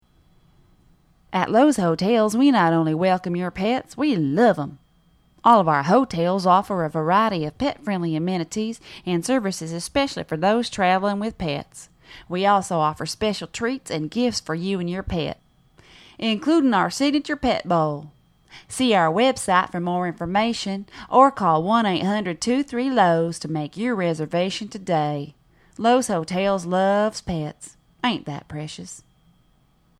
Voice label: voiceovers; Lowes Hotels - Southern Accent (female)